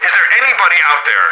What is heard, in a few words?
radio initial broadcast